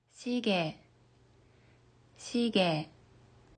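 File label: "時計", Japanese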